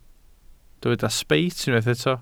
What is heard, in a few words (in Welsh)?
"sbeit", Welsh